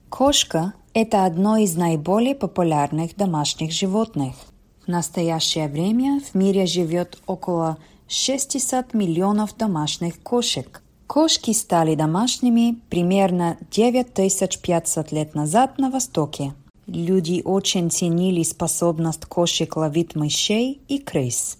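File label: Russian, Narrative; GoLocalise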